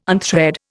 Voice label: "unthread", Indian